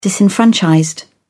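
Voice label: "disenfranchised", British English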